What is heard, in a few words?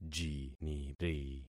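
"jiniri", concatenated syllables; Affixing experiment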